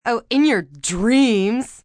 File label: In Your Dreams, Attitude, female: 'Oh, in your dreams', (human voice speaking); Talking Sound Effects